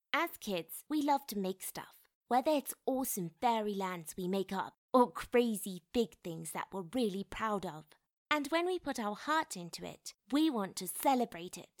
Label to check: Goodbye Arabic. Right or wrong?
Wrong